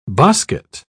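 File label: "bosket", British English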